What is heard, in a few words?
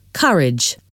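"courage", English word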